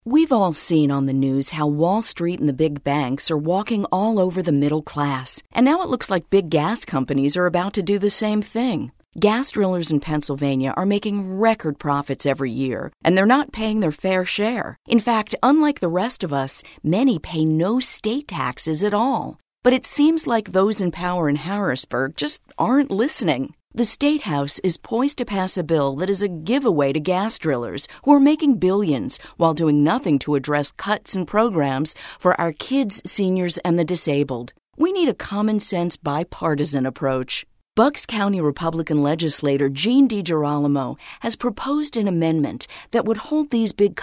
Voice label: Shale Radio Ad; SEIU; Marellus